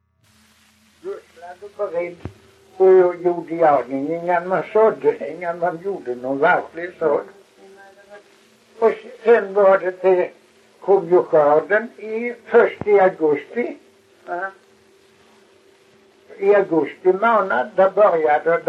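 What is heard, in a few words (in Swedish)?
Kristianopel Blekinge, dialekter; Folkminnen; Svenska sagor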